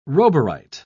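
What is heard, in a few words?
"roburite", British English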